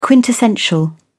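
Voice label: "quintessential", English, uk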